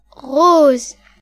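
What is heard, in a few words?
pink, Serbian; gcompris; colors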